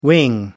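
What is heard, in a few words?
"wing", English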